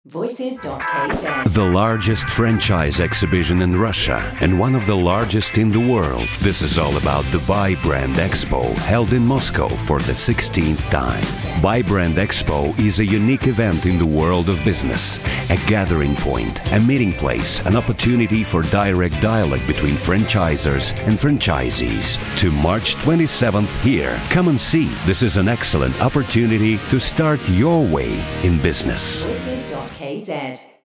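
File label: English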